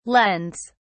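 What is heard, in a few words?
"lends", British English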